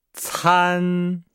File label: "can", Nihao